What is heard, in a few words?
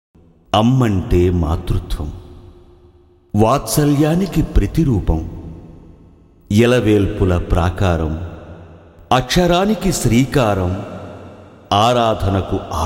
Commentary